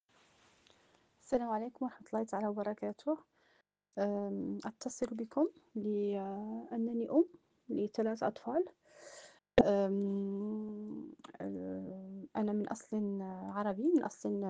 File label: voice message